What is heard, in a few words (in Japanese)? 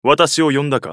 ウォーロック男 選択